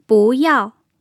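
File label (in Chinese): "bú yào", Mandarin; tone changes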